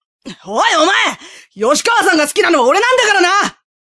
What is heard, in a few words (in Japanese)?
陽気で明るい学生; 青春; 「オイ、お前！ 吉川さんが好きなのは俺なんだからな！」